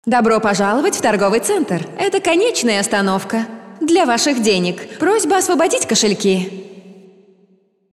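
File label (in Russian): reklama, advertisement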